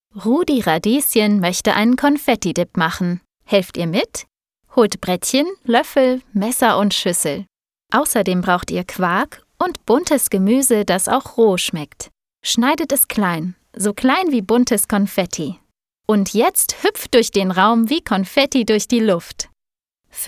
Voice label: Lehrvideo Kinder; Acker; Rudis-Konfetti-Dip

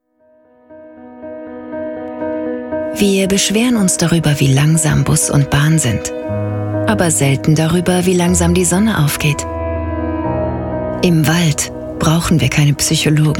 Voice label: Werbung XMas; Yves Rocher

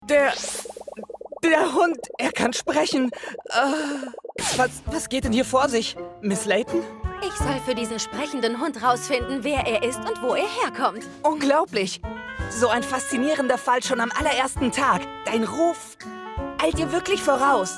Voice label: jugendlicher assistent game

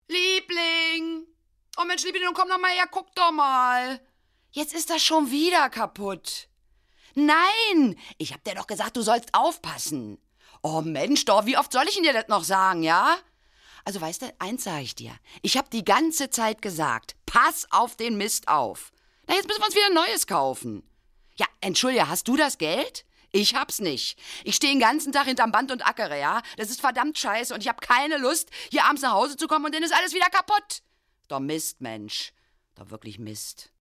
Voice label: synchron dialekt

